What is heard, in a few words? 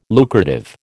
"lucrative", American